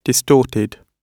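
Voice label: "distorted", British English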